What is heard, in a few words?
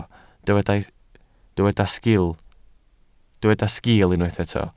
"sgiil", Welsh